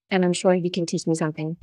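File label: Voice Acting es, female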